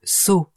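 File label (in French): "seau", French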